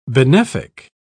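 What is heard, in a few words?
"benefic", English, British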